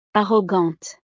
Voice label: "arrogante", francés; estados animo